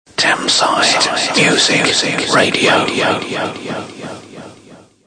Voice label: music whisper; Thameside Music radio; Thameside Hospital Radio Memories; Jingles; Serving Southend/Westcliff/Rochford